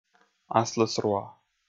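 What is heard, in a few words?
"аслысруа", Komi, male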